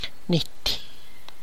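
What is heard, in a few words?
sugar cane, Tlacochahuaya Zapotec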